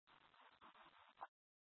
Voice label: Scottsdale Police, Simulcast